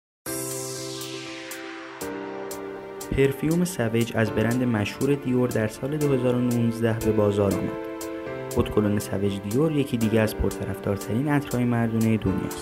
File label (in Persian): VOICE PERSIAN; DIOR SAUVAGE PARFUM